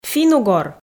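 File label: "финн-угор", Komi, female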